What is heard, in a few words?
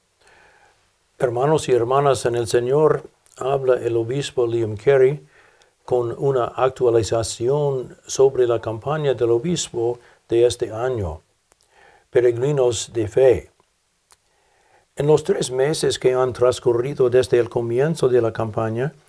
Thank You Spanish; BAA 2025